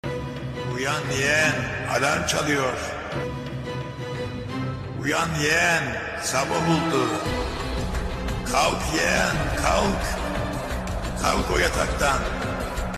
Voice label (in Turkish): Uyan Yeğen Alarm